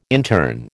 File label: "intern", American